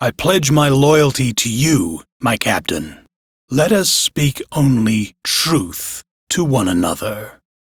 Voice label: Crew Hired, Male, en; Warframe; Railjack Crew; Arbiters Hexis